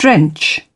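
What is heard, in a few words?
"drench", British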